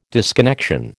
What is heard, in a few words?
"disconnection", American